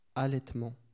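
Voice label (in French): "allaitement" French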